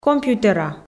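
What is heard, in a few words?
"компьютера", Komi, female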